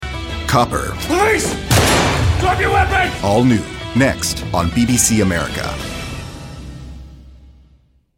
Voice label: promo